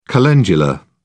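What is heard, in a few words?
"calendula", English, British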